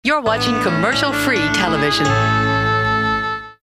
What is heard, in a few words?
imaging